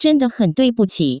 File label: zhen de hen duibuqi, Taiwan Mandarin